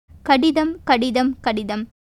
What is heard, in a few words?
Tamil